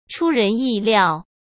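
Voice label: "出人意料" Mandarin Chinese; hsk; chinese-flashcards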